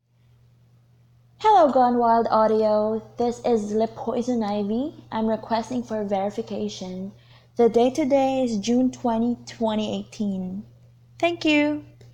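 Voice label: Female Verification; Verification for GWA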